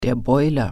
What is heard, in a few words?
"water heater", German